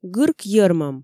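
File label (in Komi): гырк йӧрмӧм, Komi, female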